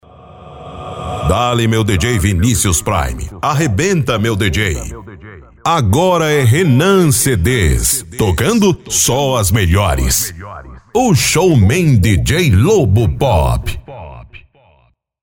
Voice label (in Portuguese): VINHETA OFF ♂; São vinhetas sem efeitos sonoros de fundo, voz tratada, equalização e limpeza de ruídos